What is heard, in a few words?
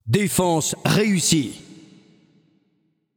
Announcer French Match Payload Enemy Failed